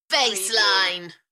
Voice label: UK female Enthusiastic; Bassline